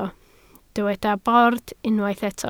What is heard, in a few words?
"bord", Welsh